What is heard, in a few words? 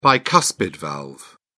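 "bicuspid valve", British English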